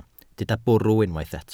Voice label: "bwrw", Welsh

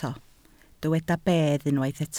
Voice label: "bedd", Welsh

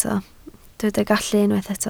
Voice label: "gallu", Welsh

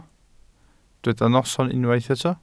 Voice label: "noson", Welsh

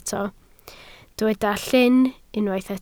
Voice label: "llyn", Welsh

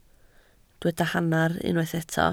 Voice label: "hanner", Welsh

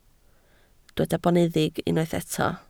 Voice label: "boneddig", Welsh

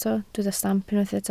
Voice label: "stamp", Welsh

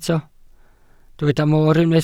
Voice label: "mor", Welsh

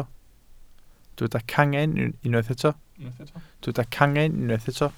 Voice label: "cangen", Welsh

